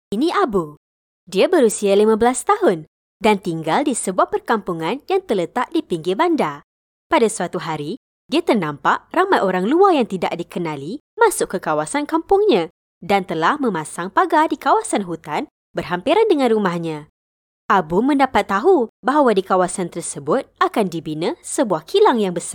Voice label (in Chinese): 马来西亚语女 外语 小语种